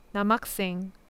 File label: male student, korean